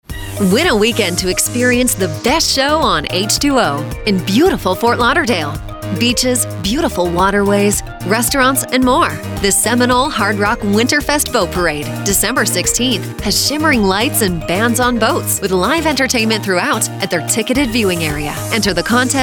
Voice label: spot COMMERCIAL; Winterfest; MIAMI